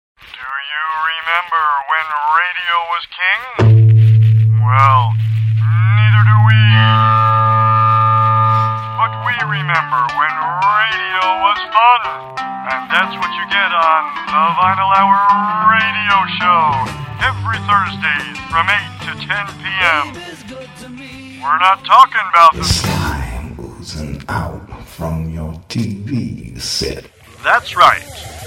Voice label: PROMO; Vinyl Hr; KYRS Locally Produced Programming